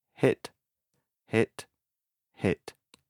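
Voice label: "hit", English; megüt, megütődik, nekiütődik; belecsapódik, nekicsapódik valami valaminek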